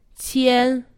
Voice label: "qian", Chinese; Survival Chinese